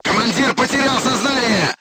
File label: voice message commander contused, crew tanks russian